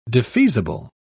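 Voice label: "defeasible", British English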